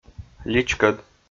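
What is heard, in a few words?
"личкӧд", Komi, male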